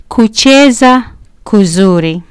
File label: "kucheza kuzuri", Kiswahili